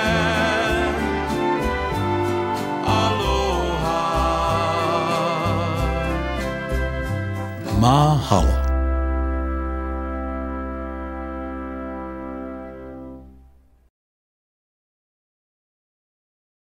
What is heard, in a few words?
CHRISTMAS SPOT; MUFI